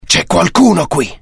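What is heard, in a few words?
curious, Italian; mohaa; dialogue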